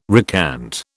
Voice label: "recant", British